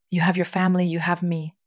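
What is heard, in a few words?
VoiceActing Spanish, female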